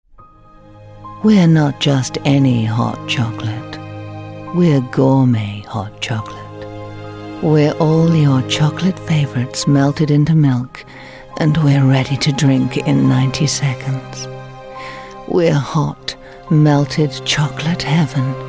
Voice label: advert hot chocolate